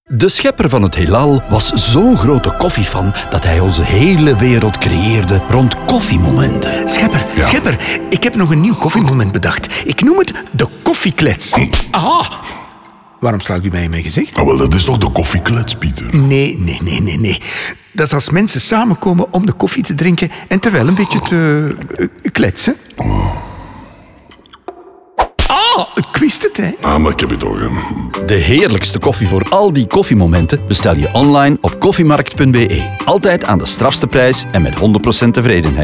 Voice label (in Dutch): RADIO VL; Koffieklets; Pauze; Koffiemarkt; Koffiemomenten